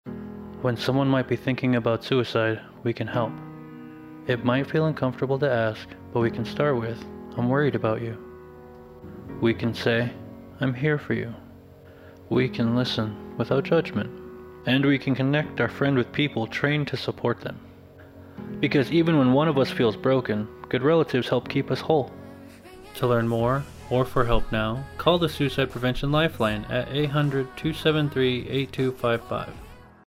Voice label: radio ad